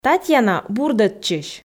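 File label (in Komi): "татьяна бурдӧдчысь", Komi, female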